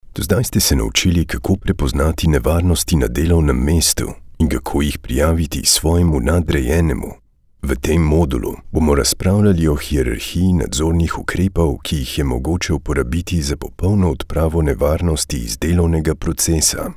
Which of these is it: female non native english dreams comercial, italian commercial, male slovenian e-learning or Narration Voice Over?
male slovenian e-learning